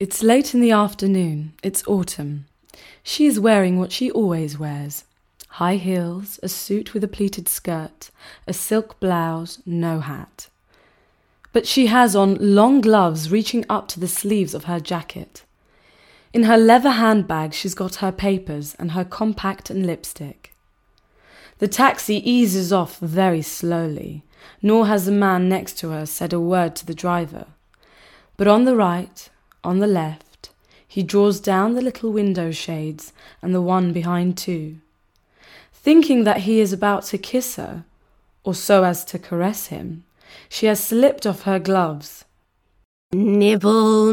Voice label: Audiobook